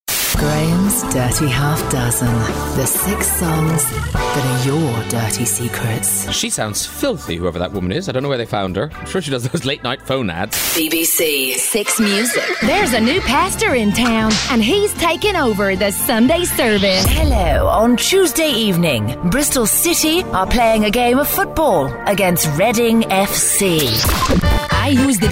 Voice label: character

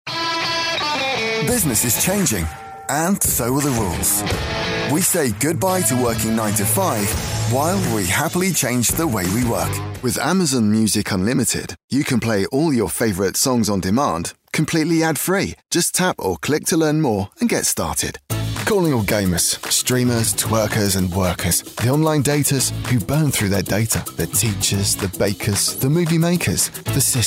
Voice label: commercial demo

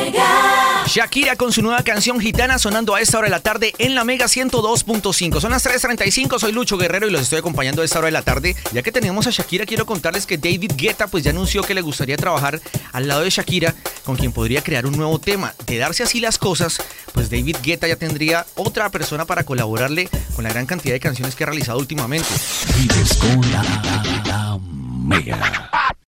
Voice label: male spanish radio presentation

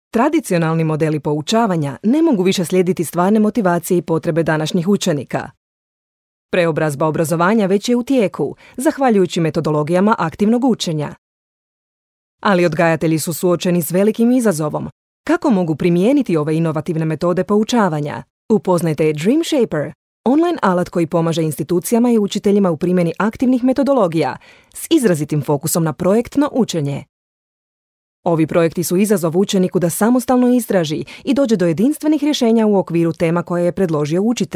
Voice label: croatian voice e-learning

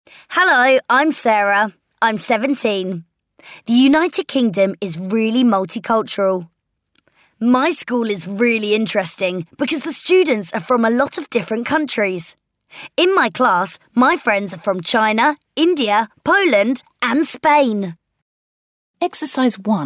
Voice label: language learning

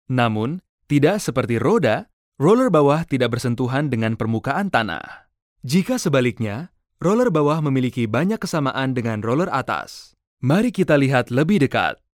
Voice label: indonesian male e-learning

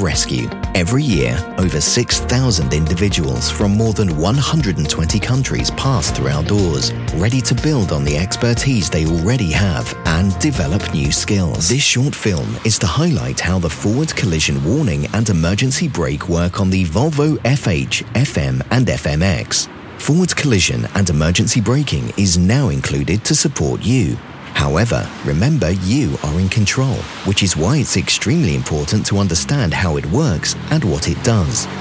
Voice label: explainer training

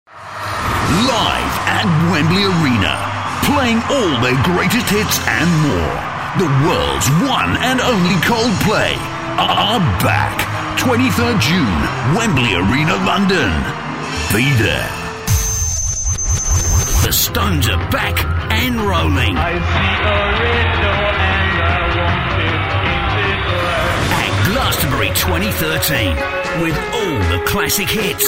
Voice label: promo trailers

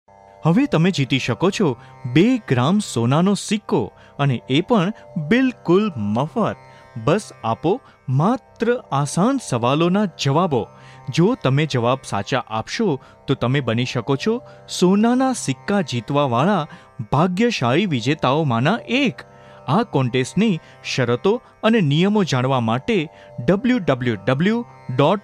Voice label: gujarati male, corporate

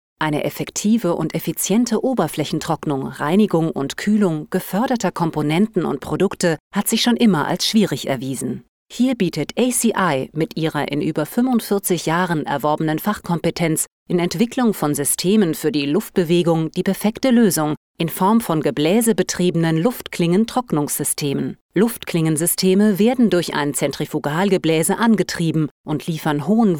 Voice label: german female corporate

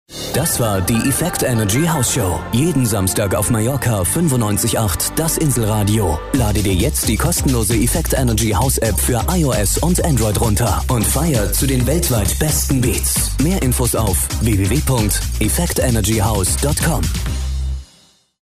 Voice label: german male upbeat

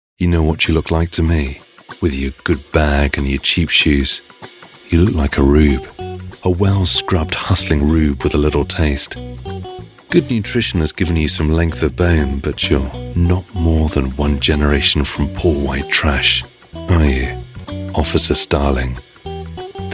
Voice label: audiobook

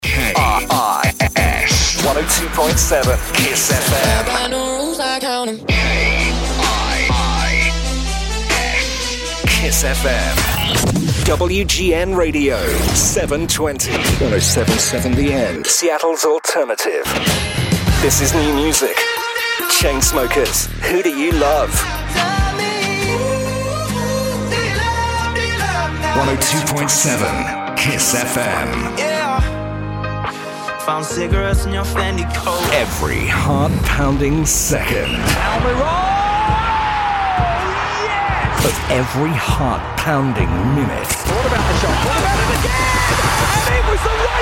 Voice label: imaging

